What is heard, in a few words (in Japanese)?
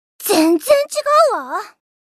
エモーション（否定）; 「全然違うわー。」